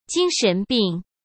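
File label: "精神病", Mandarin Chinese; hsk; chinese-flashcards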